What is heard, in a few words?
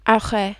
"arret", French